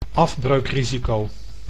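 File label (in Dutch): "afbreukrisico", Dutch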